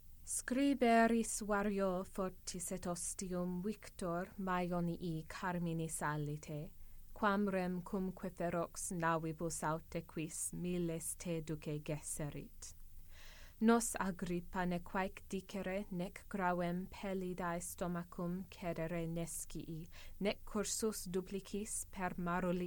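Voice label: Horace Ode 1.6 read, Latin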